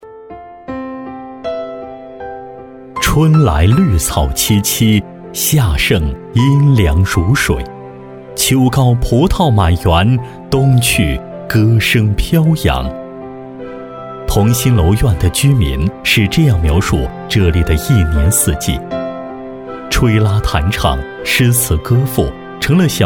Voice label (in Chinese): 男 人物专题; 代表人物